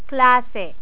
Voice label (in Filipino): "klase", Tagalog